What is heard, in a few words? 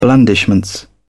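"blandishments", British English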